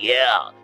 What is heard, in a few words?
"yeah" cartoon, voice